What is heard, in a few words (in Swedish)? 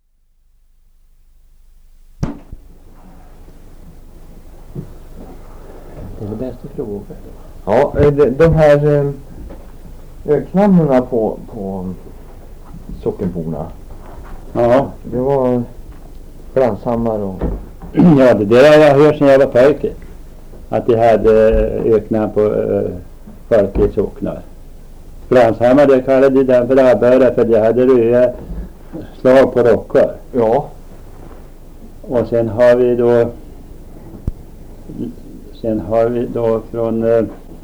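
Viby Närke, dialekter; Svenska sagor; Folkminnen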